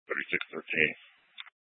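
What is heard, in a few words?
Police Dispatch, Scanner audio; Police 1 Dispatch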